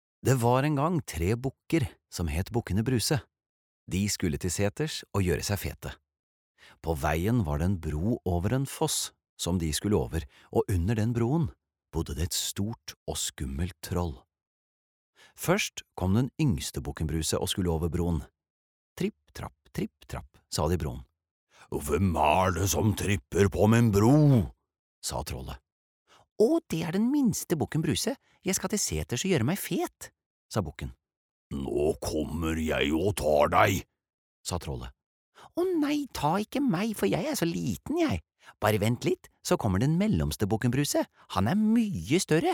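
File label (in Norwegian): barnebok, Norwegian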